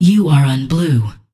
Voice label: Voices Announcer Status